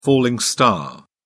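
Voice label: "falling star", English, British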